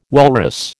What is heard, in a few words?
"walrus", American